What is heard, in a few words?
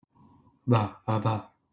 Voiced bilabial flap